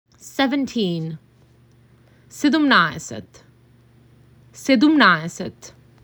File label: MAC 6, macedonian phrasebook